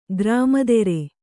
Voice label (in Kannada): "ಗ್ರಾಮದೆರೆ", kannada